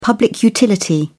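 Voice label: "public utility", British English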